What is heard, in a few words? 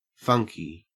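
"funky", Australian English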